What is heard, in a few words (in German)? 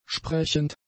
"sprechend", German; adjectives